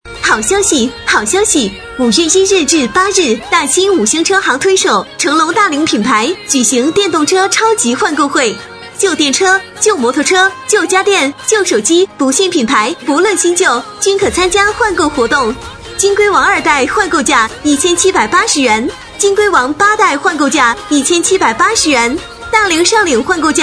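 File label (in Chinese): 女声车行; 大新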